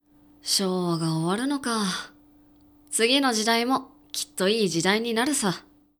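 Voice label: male; 男性 「昭和が終わるのか…次の時代もきっといい時代になるさ。」; クール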